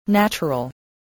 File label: "natural", voice